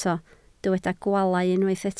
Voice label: "gwaalau", Welsh